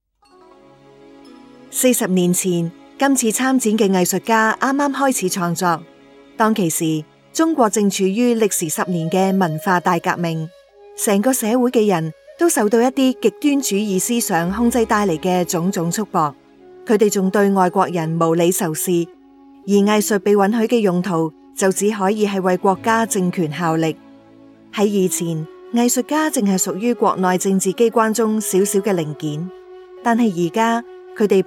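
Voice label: chinese cantonese female narration; voice demos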